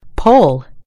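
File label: "pole", American English; gcompris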